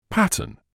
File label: "pattern", English